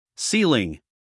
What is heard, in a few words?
"ceiling", us male; everyone-can-use-english